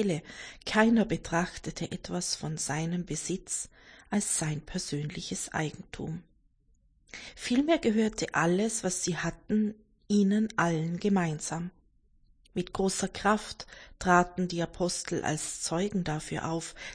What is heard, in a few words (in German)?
2. So d OSZ 1. Lesung